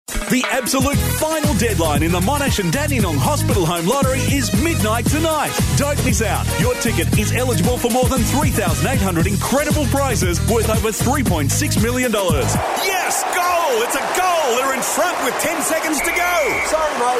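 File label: English Australian, male, commercial; voice demos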